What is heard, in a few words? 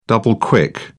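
"double-quick", British English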